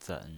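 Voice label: "zen" Mandarin Chinese pinyin voice, third tone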